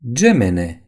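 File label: "twin girls", Romanian; gcompris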